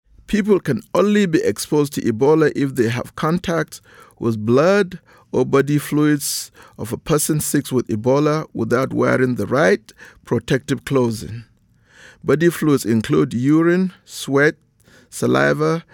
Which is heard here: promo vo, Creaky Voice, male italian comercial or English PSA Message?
English PSA Message